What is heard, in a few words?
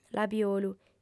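"labiolu", female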